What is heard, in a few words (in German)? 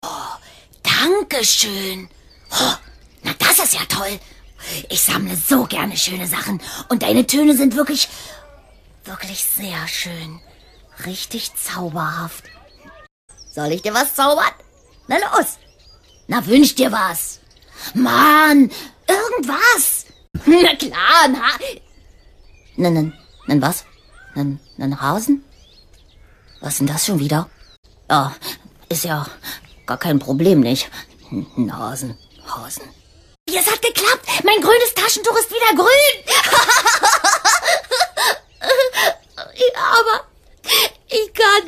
Animation